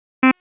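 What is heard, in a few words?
Raleigh Police Southeast District Dispatch, p25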